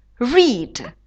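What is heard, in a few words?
"read", English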